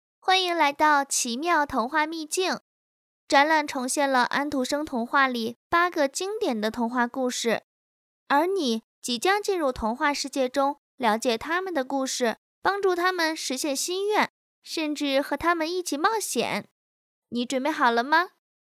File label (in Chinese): 女童音